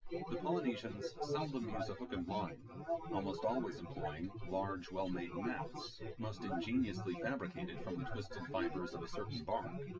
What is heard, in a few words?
test male synthconv 130ms 1m src; SiSEC08; SiSEC underdetermined